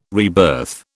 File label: "rebirth", British